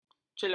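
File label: "celah", Malay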